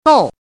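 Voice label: "gou" fourth tone, pinyin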